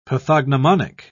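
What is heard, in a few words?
"pathognomonic", British English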